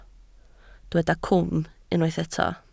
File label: "cwm", Welsh